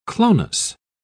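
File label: "clonus", British English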